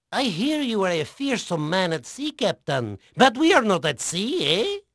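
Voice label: Spanish male, voice; Pirates Of The Caribbean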